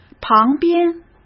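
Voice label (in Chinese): "旁边", Mandarin Chinese; chinese-flashcards; hsk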